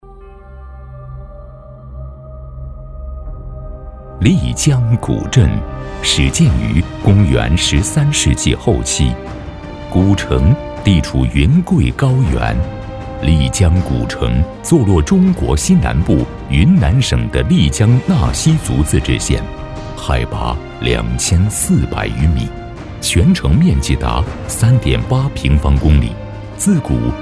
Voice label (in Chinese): 男, 宣传; 丽江古镇